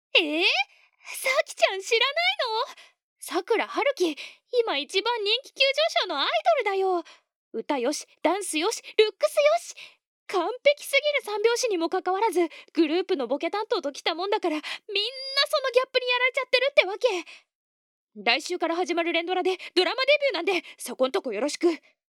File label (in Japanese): 女子高生